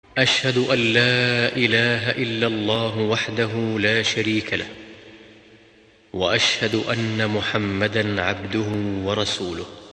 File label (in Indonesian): Bacaan Doa Setelah Wudhu Bahasa Arab